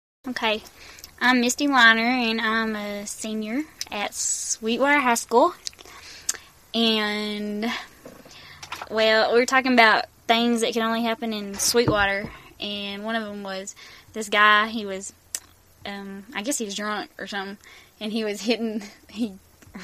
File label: Sweetwater Texas WF 17yrs